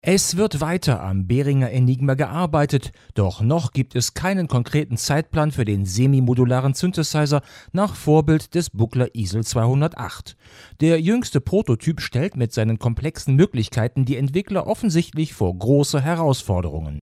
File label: USB Deep Near, Maono PD400X